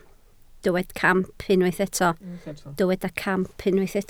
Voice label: "camp", Welsh